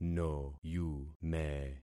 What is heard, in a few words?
"noyume", concat syllables; Affixing experiment